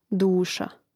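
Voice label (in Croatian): "duša", hrvatski; mreznik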